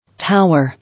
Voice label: "Tower", English

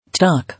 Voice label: "Talk", English

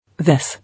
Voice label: "This", English

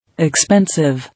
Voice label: "Expensive", English

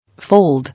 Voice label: "Fold", English

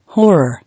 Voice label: "Horror", English

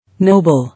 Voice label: "Noble", English

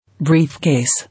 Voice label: "Briefcase", English

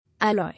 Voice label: "Alloy", English

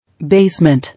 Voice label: "Basement", English

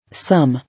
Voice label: "Some", English

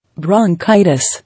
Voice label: "Bronchitis", English

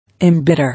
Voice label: "Embitter", English

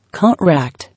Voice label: "Contract", English

